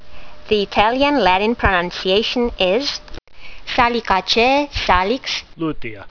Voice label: latin; Salix lutea